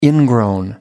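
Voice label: "ingrown", British English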